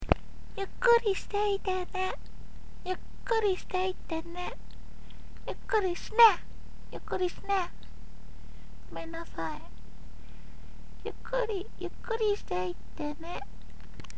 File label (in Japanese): ゆっくり